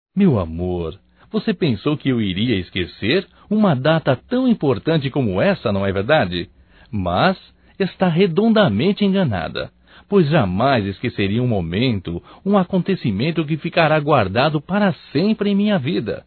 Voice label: ANIV DE CASAMENTO (M); Casamentos

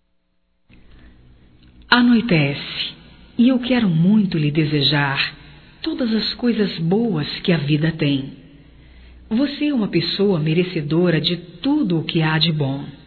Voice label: Boa Noite Feminino, Telemensagens, Só Voz; Telemensagens 2017

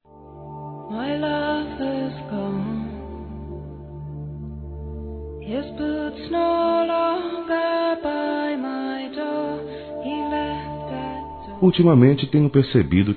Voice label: ESPOSA MÃE M, Dia das Mães, Masc; Telemensagens 2017

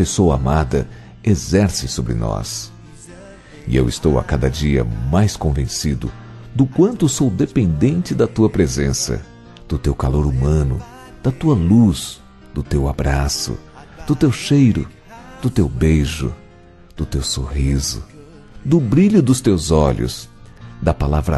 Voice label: telemensagem Saudade Sua Ausência Muito Triste Masculino

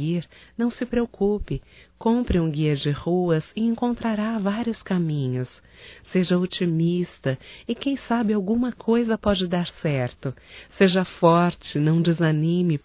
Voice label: Gozacao amiga otimismo, fem, Só Voz; Telemensagens; AMIZADE

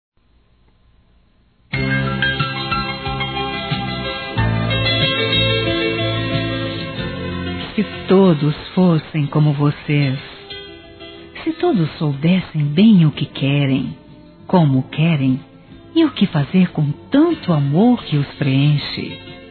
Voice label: Aniversário Casamento Geral Feminino, Telemensagens; Aniversários

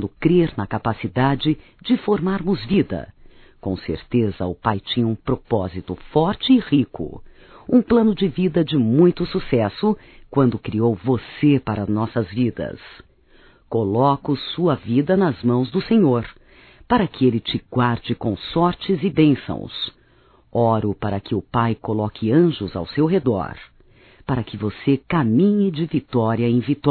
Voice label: Aniversário Feminino, Telemensagens; Aniversário Filho Feminino

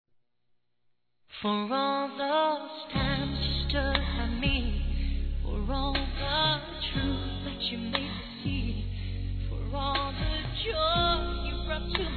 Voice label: UMA NOITE INESQUECÍVEL, fem; Telemensagens; Sensuais

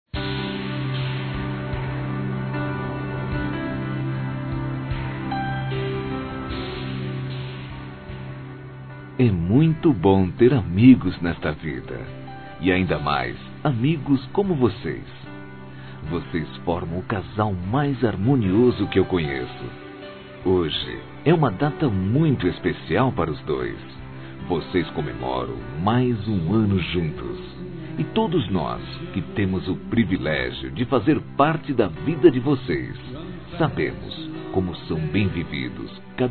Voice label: Romantica Aniversário Casamento (Plural) Masculino, Telemensagens

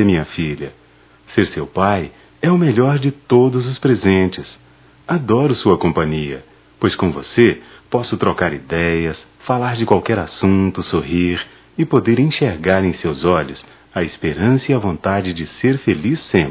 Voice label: Natal para filho - m; masc; Telemensagens 2017; Natal & Ano Novo

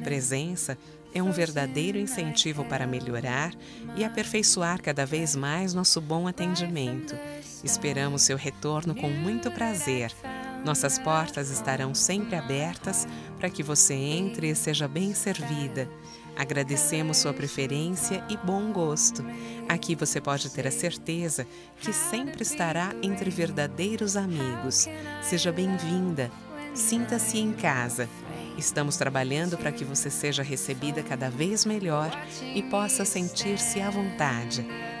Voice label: Cliente professora, fem, Telemensagens Empresarial; Telemensagens 2017

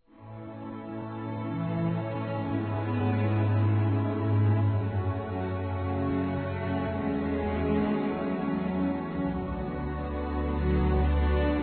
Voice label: AGRADECIMENTO AO PAI F; Aniversários; Aniv Familiar

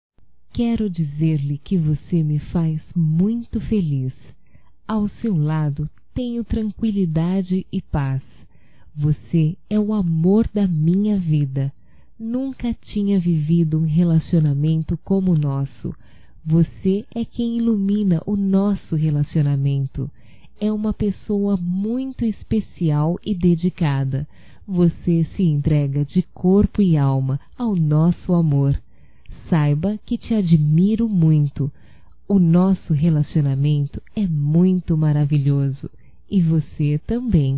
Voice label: ROMANTICA SUAVE (F)

